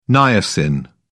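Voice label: "niacin", British English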